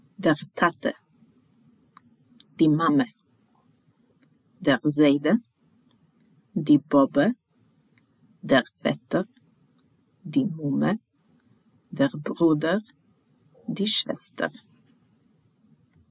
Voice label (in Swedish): Ordlista Familjemedlemmar, Jiddish